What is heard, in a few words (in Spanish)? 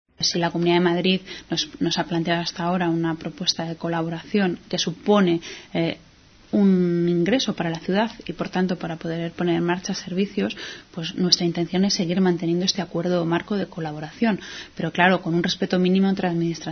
noticias